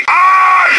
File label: hurt heavy; cloaker